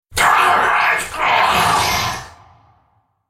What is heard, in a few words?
Droid dying, character voices english; KhazixDroid; lol; en US